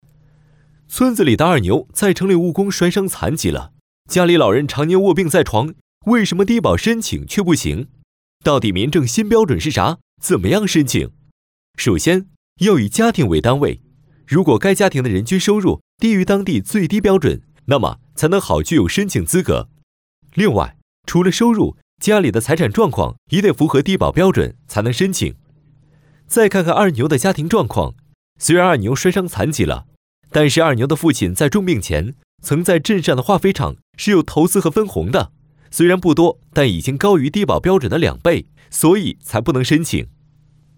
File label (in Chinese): 动画政策低保解说; mg动画 政策低保解说